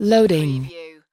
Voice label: "loading", US female; lynne publishing